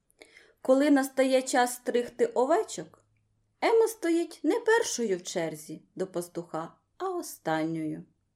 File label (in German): ukrainisch